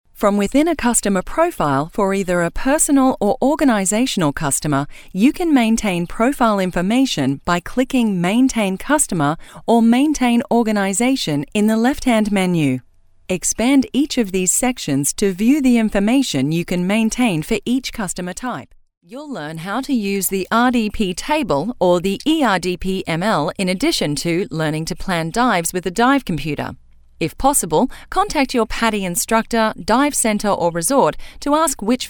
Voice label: Narration Australian Female; commercial voiceover